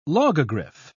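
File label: "logogriph", British English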